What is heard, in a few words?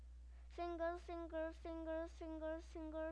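"singer", spoken word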